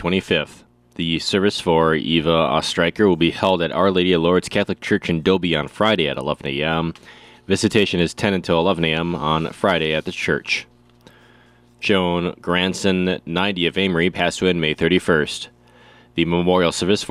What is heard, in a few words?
Funeral Announcements